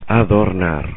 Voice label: "adornar", spanish; infinitive